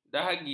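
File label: "dahagi", Malay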